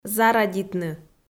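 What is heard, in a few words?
"зарадитны", Komi-Zyrian, female; audio dict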